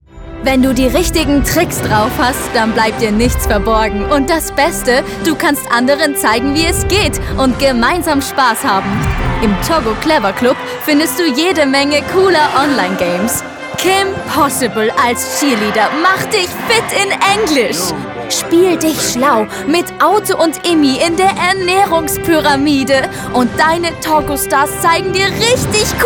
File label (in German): Werbung; TOGGO